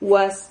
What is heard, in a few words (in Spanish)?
"uas", Silabas